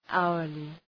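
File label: "hourly", English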